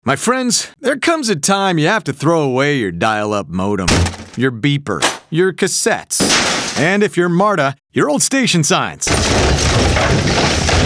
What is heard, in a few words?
Radio, ENGLISH